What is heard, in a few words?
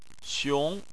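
"xiong", Chinese, second tone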